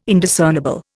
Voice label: "Indiscernible", Indian